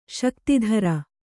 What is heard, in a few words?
"ಶಕ್ತಿಧರ", Kannada